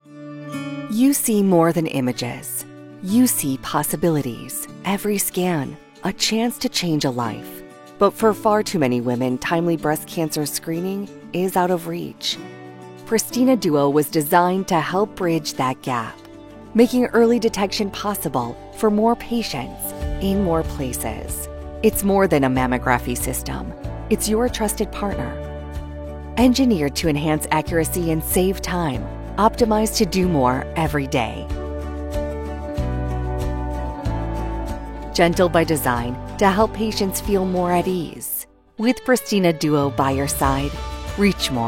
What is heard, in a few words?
english american medical narration; professional North American woman voice over talent